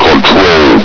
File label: "control", combine soldier; hl2